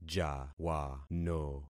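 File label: "jawano", concatenated syllables; Affixing experiment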